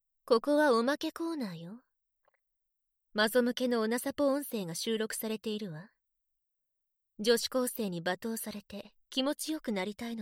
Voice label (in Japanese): 美人優等生がおくる囁き 濃いめっ！